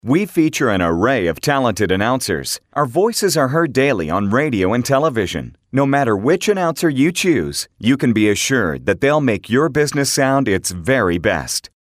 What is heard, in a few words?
Male